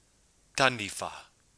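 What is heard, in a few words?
"taniwha", Māori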